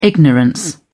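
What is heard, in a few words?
"ignorance", English, British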